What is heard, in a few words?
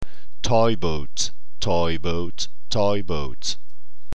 toy, tongue twisters; Toy boat. Toy boat. Toy boat.; Tongue twisters pour faire tourner la langue